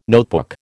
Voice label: "notebook", American

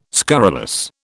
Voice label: "scurrilous", British

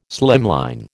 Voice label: "slimline", American

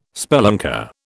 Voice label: "spelunker", British

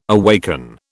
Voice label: "awaken", British

